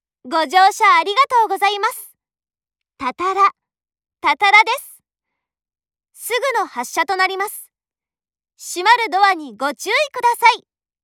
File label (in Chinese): 多田羅站發車前 廣播, 鐵路社内放送; 萬岡鐵路社内放送